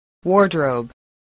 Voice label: "wardrobe", spelling